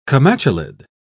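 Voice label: "comatulid", British English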